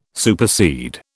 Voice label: "supersede", British